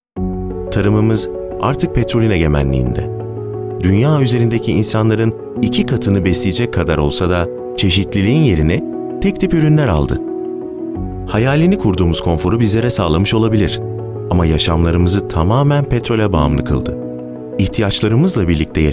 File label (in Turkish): belgesel